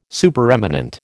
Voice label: "Supereminent", American

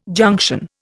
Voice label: "junction", Indian

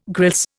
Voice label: "grilse", Indian

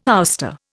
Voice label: "ouster", Indian

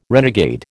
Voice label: "renegade", American

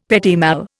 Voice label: "petit mal", Indian; Dictionary

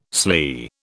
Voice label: "sley", British

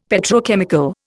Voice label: "Petrochemical", Indian; Dictionary

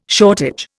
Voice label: "shortage", Indian

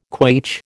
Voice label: "quaich", American

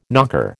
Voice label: "knocker", American

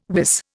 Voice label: "wis", Indian

